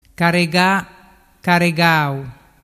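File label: "carega gau", Vernazzese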